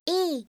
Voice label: "e", alphabet, voice